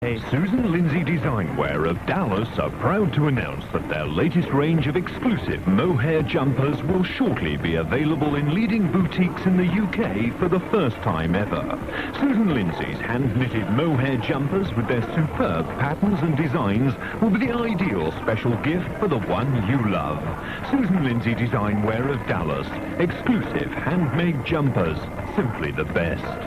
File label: commercial; Susan Linsey Designwear